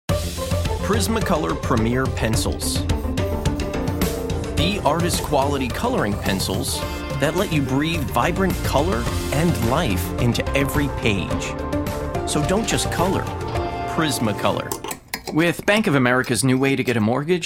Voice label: commercial male; commercial men

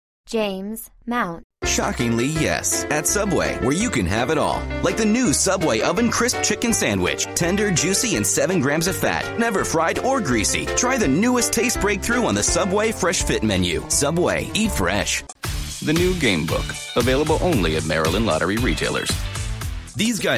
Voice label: commercial, men

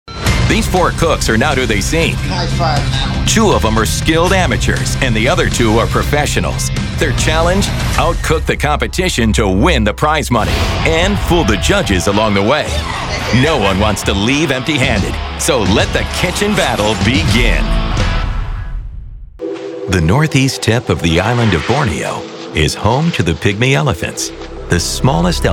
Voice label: narration male in show

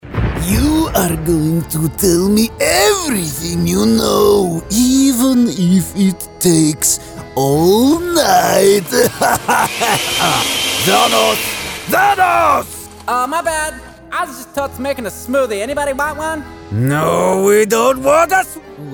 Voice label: animation men

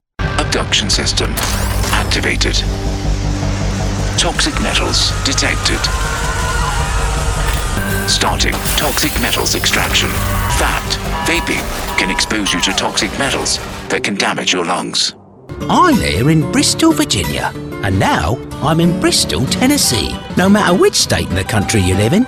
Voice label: languages men british

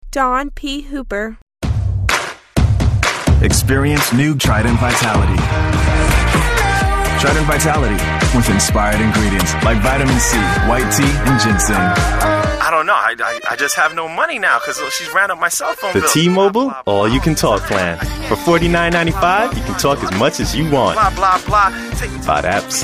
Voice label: commercial men

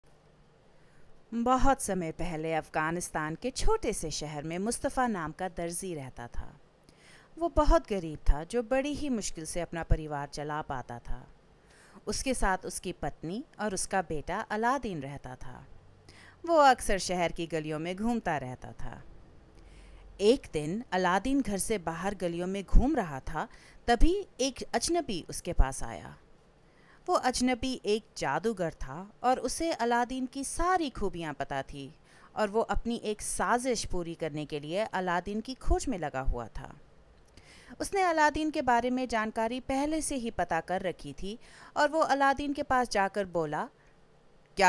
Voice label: languages accents women hindi

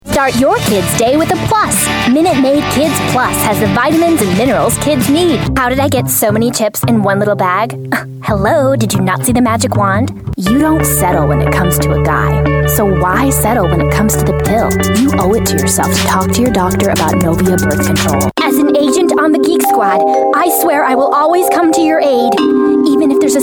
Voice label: commercial female; commercial women

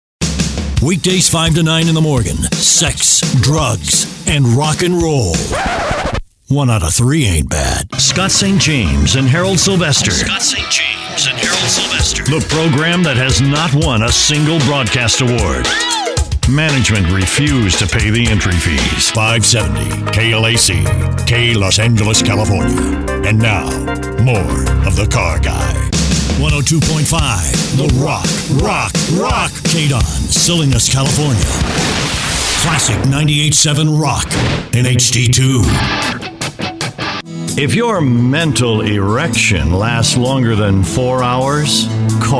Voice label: radio imaging

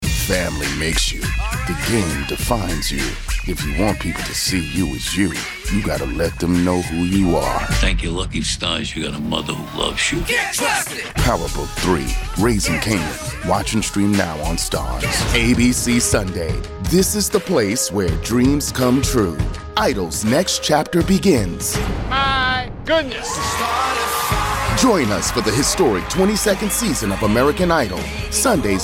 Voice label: promo men

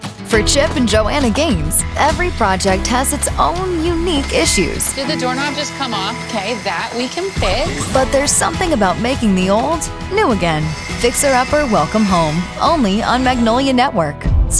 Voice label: promo women

